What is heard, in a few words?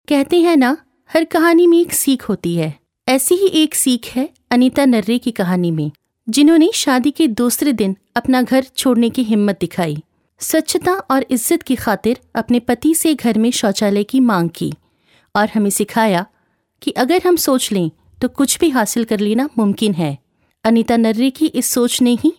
FVO